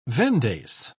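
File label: "vendace", British English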